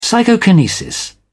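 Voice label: "psychokinesis", British English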